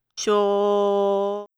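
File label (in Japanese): "しょ", Japanese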